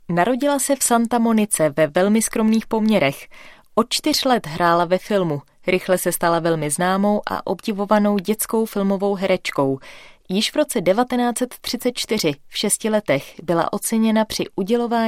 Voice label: Czech F Narration